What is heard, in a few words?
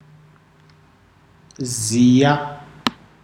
"know facts", ndau